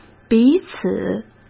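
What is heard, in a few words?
"彼此", Mandarin Chinese; chinese-flashcards; hsk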